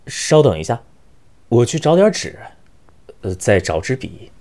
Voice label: 撤退, BattleCry; ycrpg; 南宫

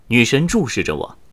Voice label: 回合开始, BattleCry, 年轻的修士; ycrpg

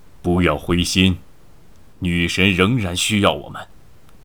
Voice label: 撤退, BattleCry; ycrpg; 大胡子

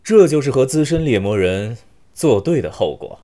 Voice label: 胜利, BattleCry; ycrpg; 南宫

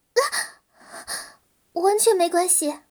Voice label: 受击, battle cry; ycrpg; 南宫五月

